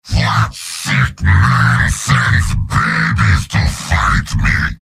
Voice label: Heavy taunts; Giant Robot lines from MvM; Heavy Robot audio responses